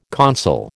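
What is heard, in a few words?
"consul", American English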